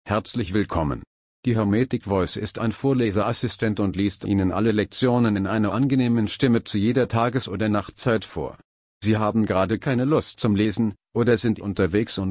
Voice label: hermetik voice